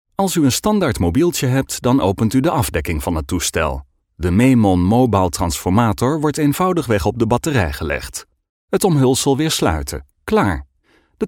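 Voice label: Dutch, male, corporate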